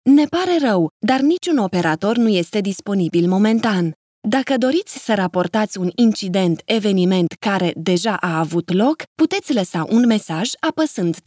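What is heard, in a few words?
Romanian, female, IVR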